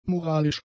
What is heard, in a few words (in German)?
"moralisch", German; adjectives